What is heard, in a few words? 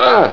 co pain, cowboy; wanted